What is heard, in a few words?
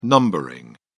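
"numbering", British English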